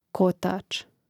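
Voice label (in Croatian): "kotac", Hrvatski; Mrežnik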